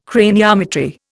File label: "craniometry", Indian